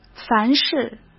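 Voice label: "凡是", Mandarin Chinese; hsk; chinese flashcards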